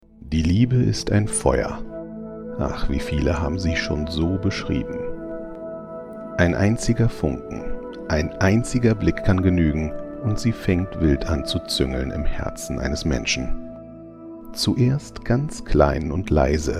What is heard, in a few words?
german, Erkek, Almanca, Kurumsal, Reklam; Eğitim